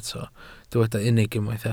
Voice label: "unig", welsh